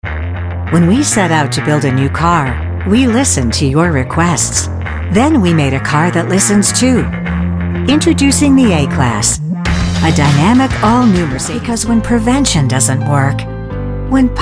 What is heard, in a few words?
Commercial